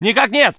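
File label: voice soviet infantry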